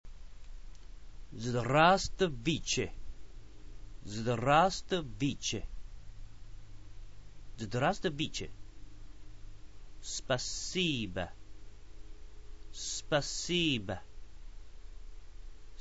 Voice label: russian